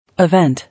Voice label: "Event", English

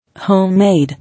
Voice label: "Home-made", English

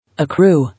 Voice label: "Accrue" English

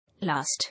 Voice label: "Last", English

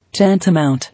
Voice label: "Tantamount", English

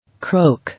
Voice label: "Croak", English

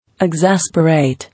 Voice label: "Exasperate", English

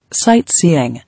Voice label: "Sight-seeing", English

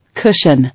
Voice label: "Cushion", English

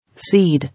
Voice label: "Seed", English

